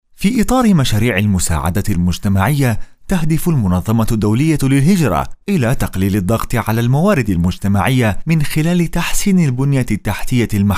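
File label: arabic male; lektoring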